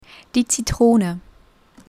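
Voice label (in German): "die Zitrone", German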